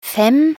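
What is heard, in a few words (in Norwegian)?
"fem", Norwegian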